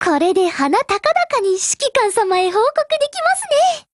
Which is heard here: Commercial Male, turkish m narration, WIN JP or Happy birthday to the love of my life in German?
WIN JP